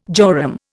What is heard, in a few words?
"jorum", Indian